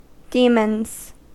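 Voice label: "demons", American English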